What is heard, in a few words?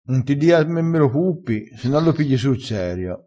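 "a me me lo puppi", Italian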